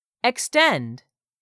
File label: "extend", English; 3000 words